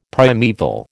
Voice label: "primeval", American